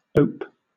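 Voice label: "ope", British English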